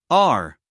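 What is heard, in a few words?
"r", US English, male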